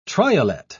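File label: "triolet", British English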